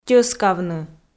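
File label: "тьӧскавны", Komi, female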